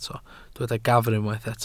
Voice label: "gafr", Welsh